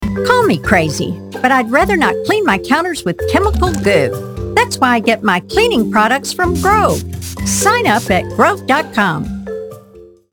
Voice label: Commercial Friendly Approachable